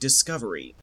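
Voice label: "discovery", Canadian English; pronunciations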